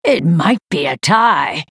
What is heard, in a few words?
female night elf start